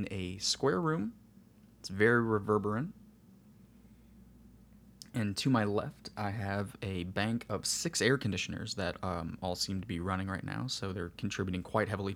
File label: Podcast Example SM7B; SOUND DEVICES MixPre 3 II; NoiseAssist